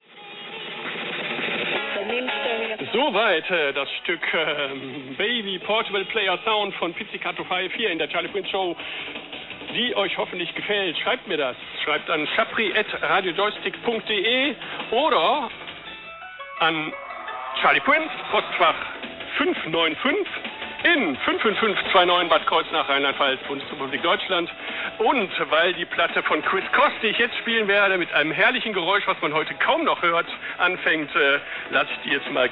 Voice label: 7330 kHz, German, AM; Radio Joystick; Austria; FTDX5000; Schwarzsee